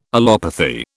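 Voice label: "allopathy", British English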